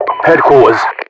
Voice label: headquarters, Voiceovers